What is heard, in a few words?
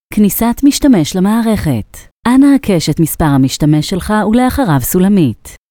hebrew Agent Login